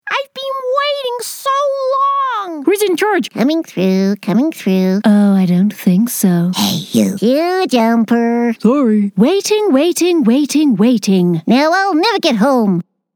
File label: Animation and Gaming